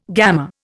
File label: "gammer", Indian